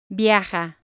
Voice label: "viaja", Spanish